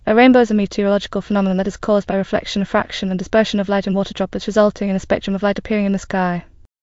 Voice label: speaker, en GB; piper voices; vctk